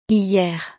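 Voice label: "hier", French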